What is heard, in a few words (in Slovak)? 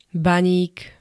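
"baník", Slovak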